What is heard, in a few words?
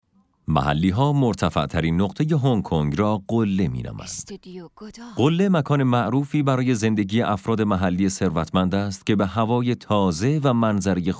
documentary